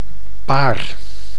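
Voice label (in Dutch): "paar", Dutch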